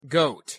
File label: pronunciation en goat